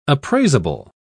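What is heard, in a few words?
"appraisable", British English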